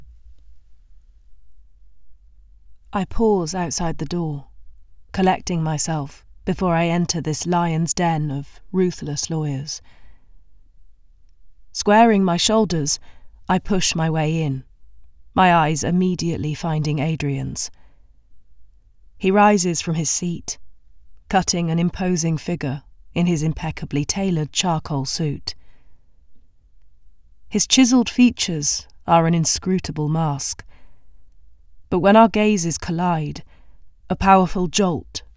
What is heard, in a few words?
Erotic Sample